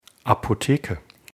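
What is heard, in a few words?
"apotheke", German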